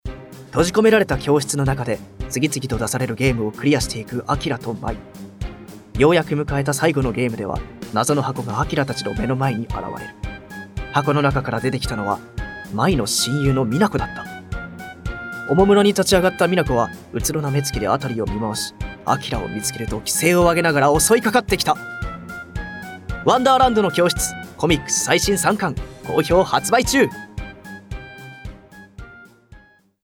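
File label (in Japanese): ナレ, ボイスサンプル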